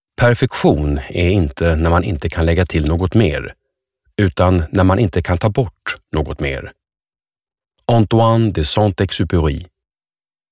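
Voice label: Swedish audiobook male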